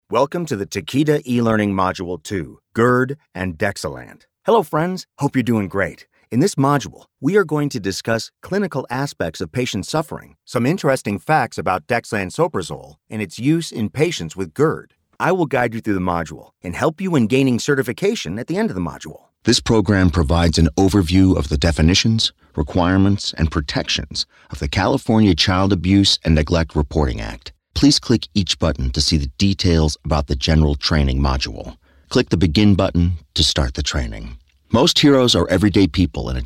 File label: eLearning